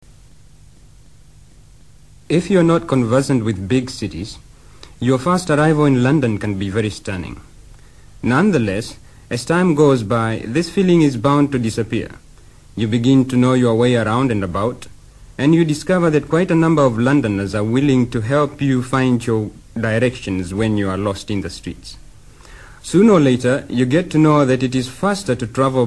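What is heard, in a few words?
KENYA Nairobi, Accents of English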